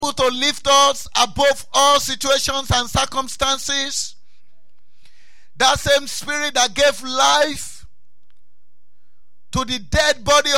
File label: Sunday Sermon